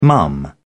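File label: "mum", British English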